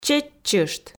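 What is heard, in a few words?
"чеччышт", Komi, female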